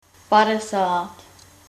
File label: fifty, czech